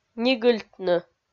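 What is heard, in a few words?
"нигыльтны", Komi, female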